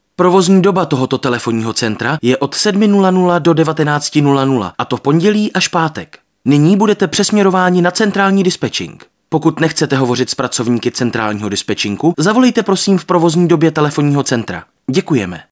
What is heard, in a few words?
male Czech IVR